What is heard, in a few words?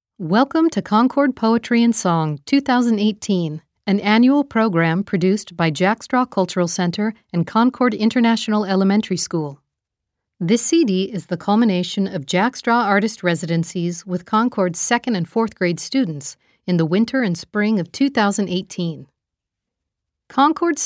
Opening Narration